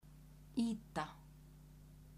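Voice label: "yta", Icelandic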